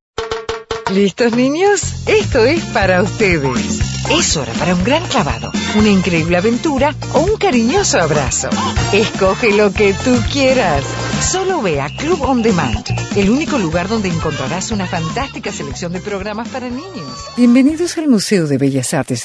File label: Spanish LA F